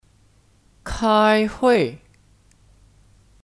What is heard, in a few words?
"开会", Kāihuì; Kata kerja; Rapat